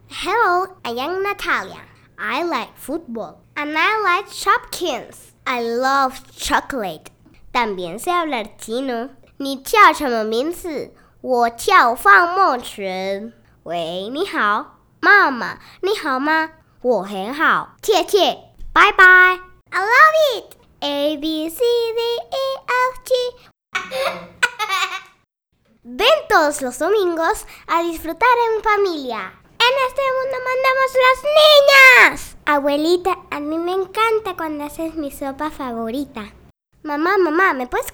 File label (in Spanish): Inst solo voz